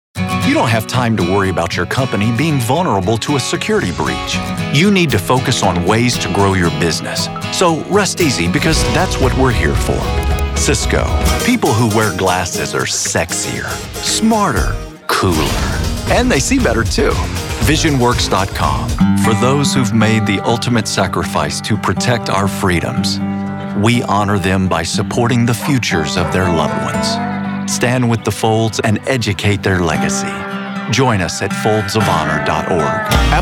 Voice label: Commercials